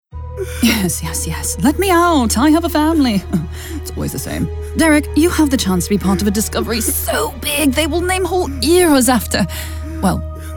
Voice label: Character